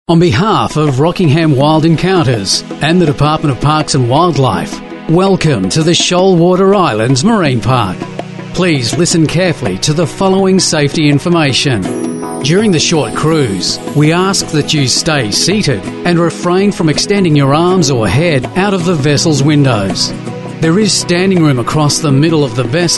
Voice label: male talent corporate